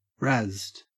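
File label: "razzed", Australian English; pronunciations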